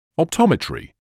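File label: "optometry", British English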